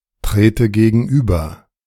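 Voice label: "trete gegenüber", German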